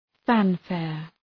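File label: "fanfare", English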